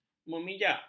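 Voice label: "memijak", Malay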